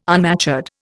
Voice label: "unmatured", Indian